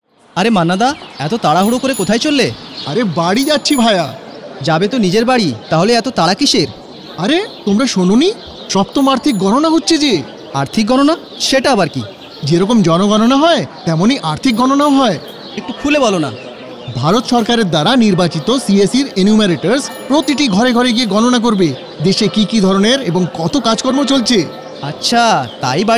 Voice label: Bangla Radio Spot; economic census; seventh economic census